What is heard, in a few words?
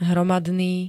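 "hromadný", Slovak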